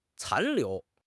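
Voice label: "残留", Mandarin Chinese; HSK; HSK6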